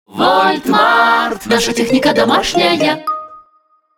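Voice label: long, Russian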